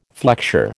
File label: "flexure", American